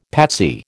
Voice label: "patsy", American